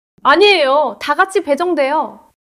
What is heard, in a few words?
neutral